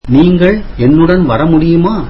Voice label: tamil can you come with me

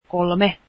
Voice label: three, finnish

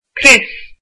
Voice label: belt, irish

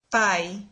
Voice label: "father", brazilian

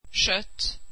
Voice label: swedish meat

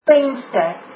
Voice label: window, afrikaans

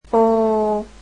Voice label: "oo", vietnamese alphabet

